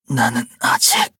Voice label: exhausted, ko; Kohlrabi; Cookie Run

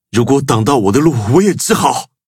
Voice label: battle, zh-hant; Cookie Run

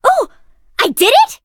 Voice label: victory en

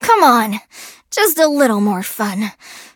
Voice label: hit, en; cookierun

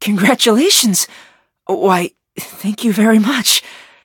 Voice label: levelup en; cookie run

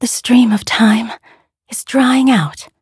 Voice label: levelup, en; cookierun

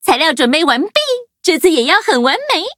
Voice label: levelup zh-hant; cookie run

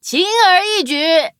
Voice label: victory, zh-hant; cookie run

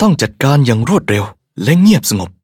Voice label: levelup, th; cookie run

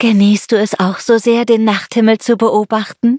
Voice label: level up, de

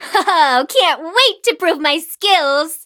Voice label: levelup en; Cookie Run

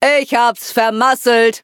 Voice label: defeat, de; cookie run

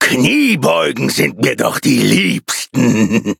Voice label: loading, de; Cookie Run

